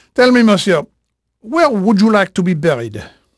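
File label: French male, voice; Pirates Of The Caribbean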